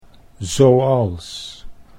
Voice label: "zoals", Dutch

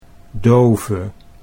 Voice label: "dove", Dutch

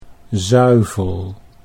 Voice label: "zuivel", Dutch

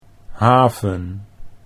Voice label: "haven", Dutch

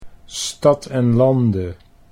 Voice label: "Stad en Lande", Dutch

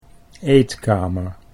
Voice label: "eetkamer", Dutch

